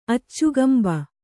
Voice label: "ಅಚ್ಚುಗಂಬ", Kannada

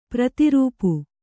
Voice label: "ಪ್ರತಿರೂಪ", Kannada

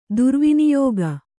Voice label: "ದುರ್ವಿನಿಯೋಗ", kannada

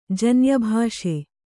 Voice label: "ಜನ್ಯಭಾಷೆ", Kannada

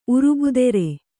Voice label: "ಉರುಬುದೆರೆ", Kannada

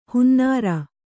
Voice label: "ಹೊನ್ನಾರು", Kannada

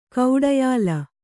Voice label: "ಗಾಢಾಯ್ಲ", kannada

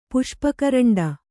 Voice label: "ಪುಷ್ಪಕರಂಡ", Kannada

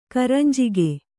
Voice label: "ಕರಂಜಿಗೆ", kannada

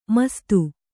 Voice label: "ಮಸ್ತು", Kannada

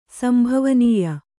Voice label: "ಸಂಭವನೀಯ", kannada

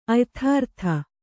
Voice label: "ಅಯಥಾರ್ಥ", Kannada

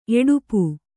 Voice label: "ಎಡುಪು", Kannada

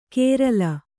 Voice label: "ಕೇರಲ", kannada

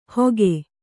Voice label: "ಹೊಗೆ", Kannada

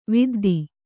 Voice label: "ವಿದ್ದೆ", Kannada

